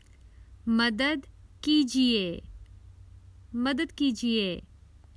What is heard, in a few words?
Common Hindi Phrases for travel Help